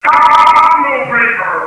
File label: combo, announcer; hl1mp; XDM